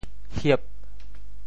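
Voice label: "hiep8", Teochew; 潮州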